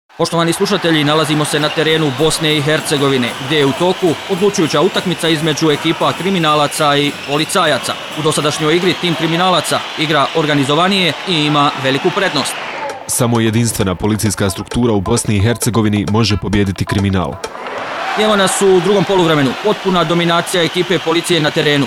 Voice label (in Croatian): radio spot kosarka cro; police campaign